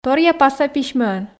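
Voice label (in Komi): Komi, торъя паса письмӧӧн, female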